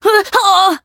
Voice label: Voice damaged; felmina